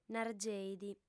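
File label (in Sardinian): "nargeit", female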